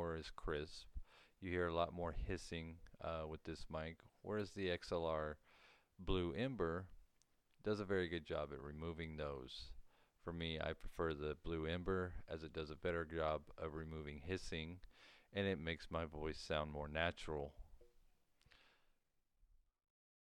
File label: previous XLR microphone